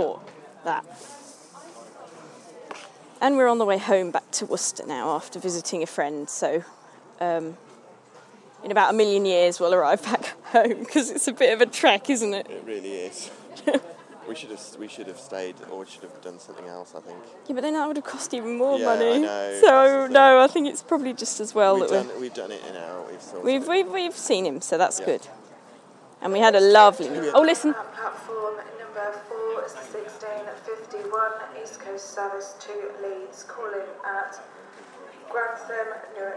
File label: station and train announcement definitely human not automated